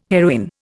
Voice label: "heroine", Indian

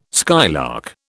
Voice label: "skylark", British

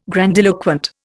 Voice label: "grandiloquent", Indian

